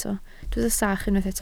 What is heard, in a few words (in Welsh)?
"sach", Welsh